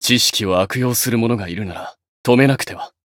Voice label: encount, jp